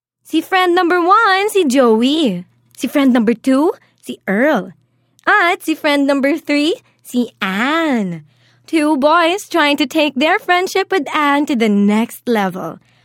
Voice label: Taglish (Warmhearted, Enthusiastic)